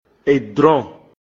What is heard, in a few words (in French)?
"Armoire", Mina; Èdrɔ̂n